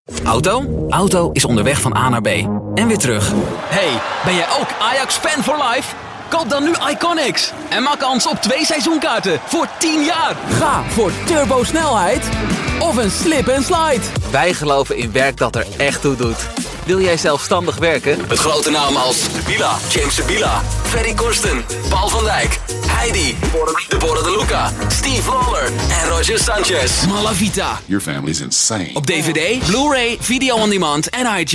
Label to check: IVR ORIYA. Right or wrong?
Wrong